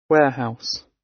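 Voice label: "warehouse", British English; Design and innovation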